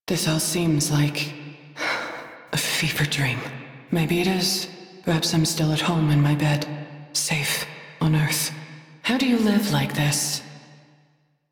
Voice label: Crew Idle, Female; Railjack Crew; New Loka

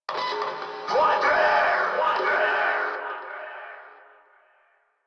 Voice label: Yell From Skiff; en; Warframe; Eidolon; Grineer

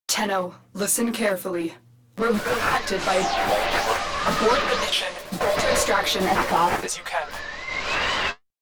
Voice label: Death Squad Warning; Warframe; en

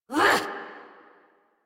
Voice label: Adult Operator, Melee Swing, en; New War

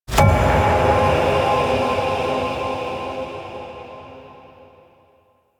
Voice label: Murmur Full; Warframe; UI; Kuva Lich

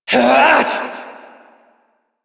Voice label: Frustration; Warframe; Entrati; Evil Twin

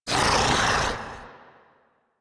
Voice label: Pain; Warframe; Slinky

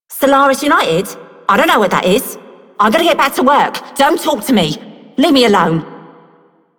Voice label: Female Worker, Female One, en; Dialog; Warframe; Solaris Venus

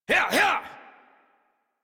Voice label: Horse Sprint Adult; Warframe; Duviri

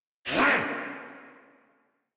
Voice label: Threatened Grineer Male

